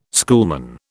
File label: "schoolman", British